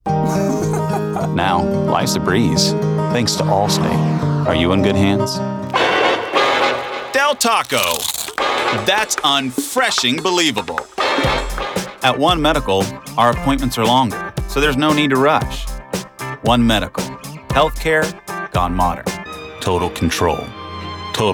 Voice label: Commercial